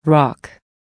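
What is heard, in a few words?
"rock", English; listening quiz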